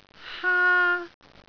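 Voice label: "haa1", Cantonese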